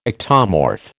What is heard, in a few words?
"ectomorph", American